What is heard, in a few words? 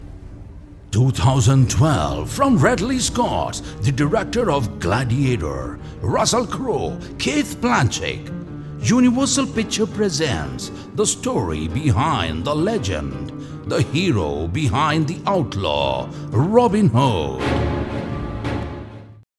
promo eng; robinhood